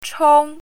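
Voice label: "chong1", Chinese pinyin, first tone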